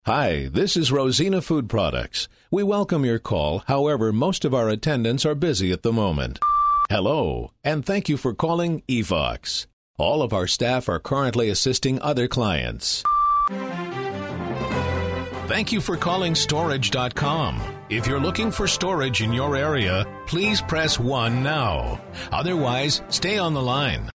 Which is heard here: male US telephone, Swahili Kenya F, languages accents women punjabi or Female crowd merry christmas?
male US telephone